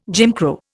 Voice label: "Jim Crow", Indian